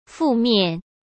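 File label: "负面", Mandarin Chinese; chinese-flashcards; hsk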